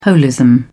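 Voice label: "holism", British English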